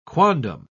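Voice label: "quondam", English, uk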